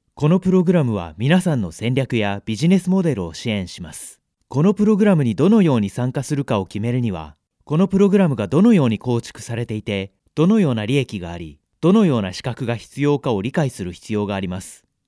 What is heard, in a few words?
male japanese voice over talent narrative